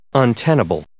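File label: "untenable", English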